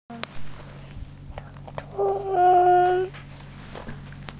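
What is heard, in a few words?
"cu1", Mandarin Chinese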